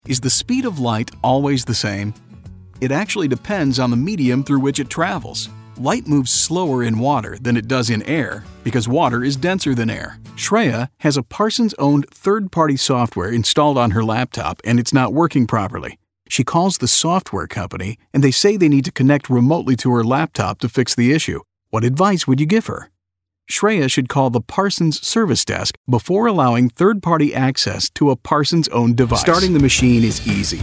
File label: men elearning